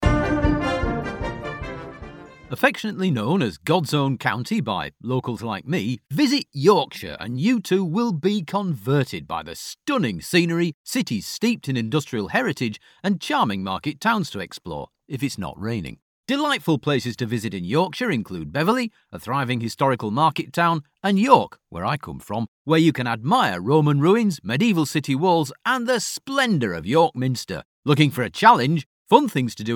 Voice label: corporate tourism

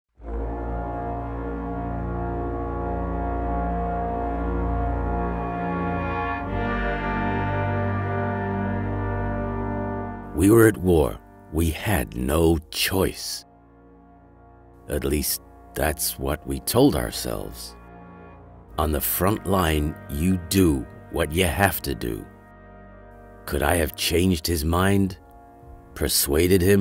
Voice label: Character Demo Aged US

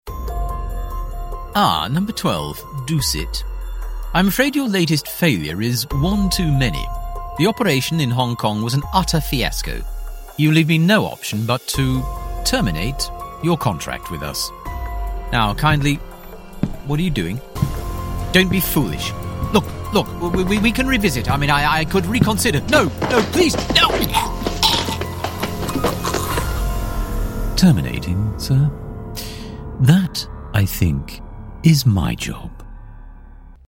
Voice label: Character Demo Heightened RP

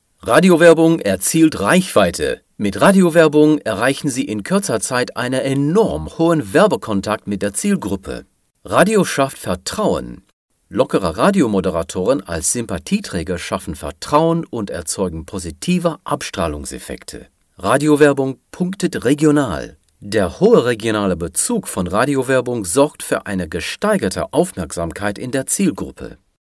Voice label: commercials German

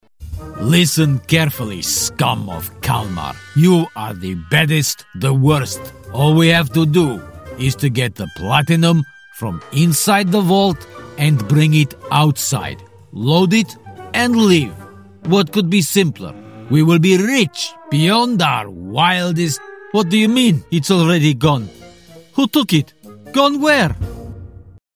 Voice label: character Slavic thug

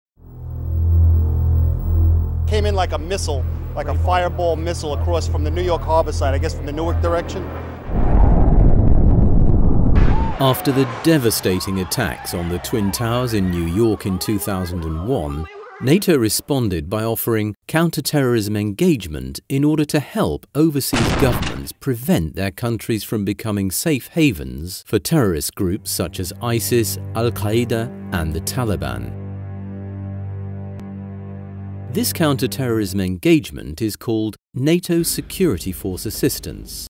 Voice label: Documentary, voice; NATO

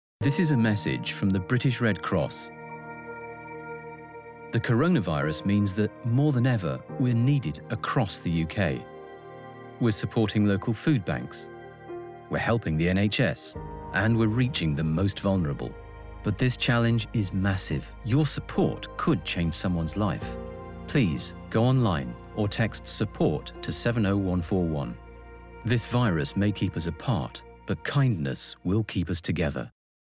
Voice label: commercials

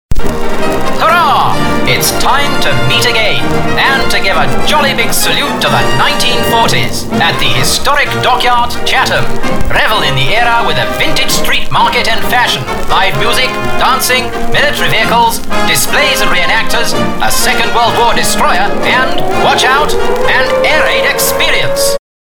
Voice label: commercials RP

